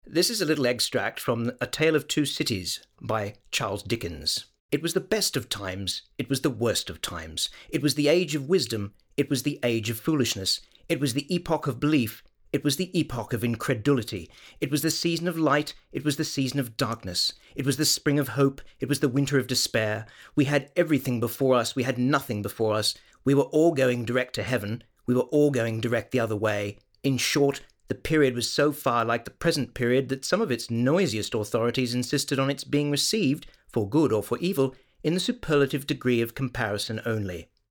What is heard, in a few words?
English accent